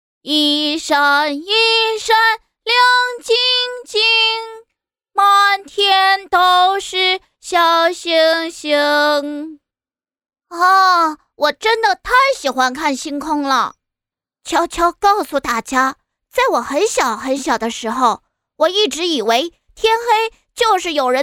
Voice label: 正太音儿童故事; 正太音儿童故事百字

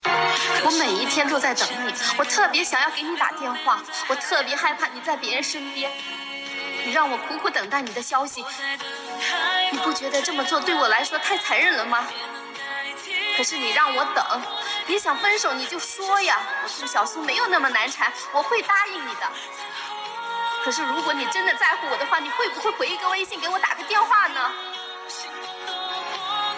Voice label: 角色 配音

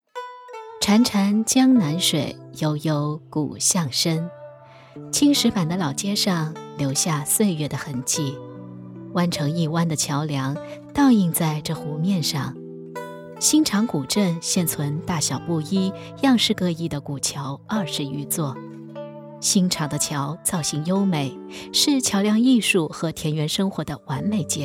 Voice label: 婉约旁白; 潺潺江南水

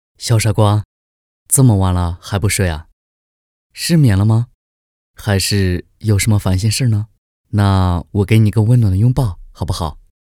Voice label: 哄睡 奶狗狼狗音色

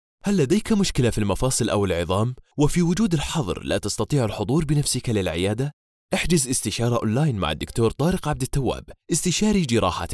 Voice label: 阿拉伯语男

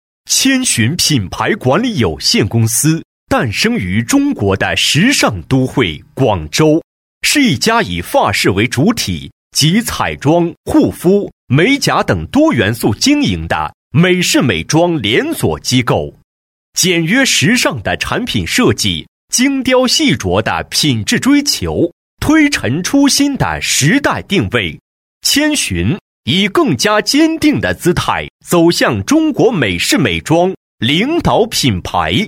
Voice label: 宣传片; 千寻公司

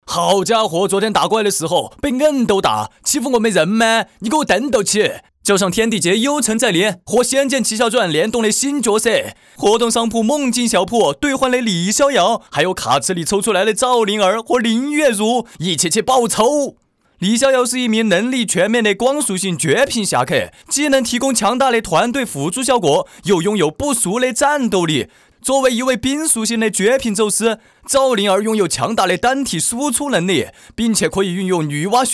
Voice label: 川普; 天地劫

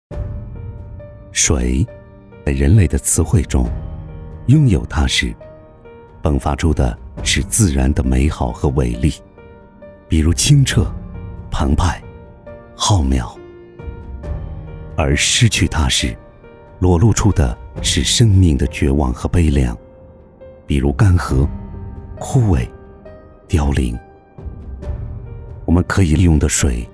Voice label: 走心自然讲述风格地球水自然保护公益宣传片

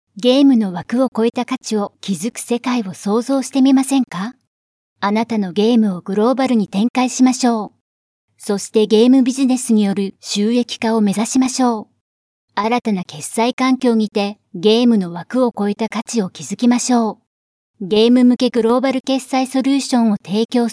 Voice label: 外籍日语女年轻活力

